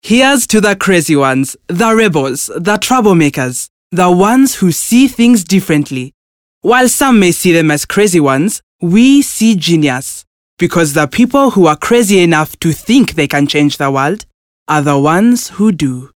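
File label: English East African Conversational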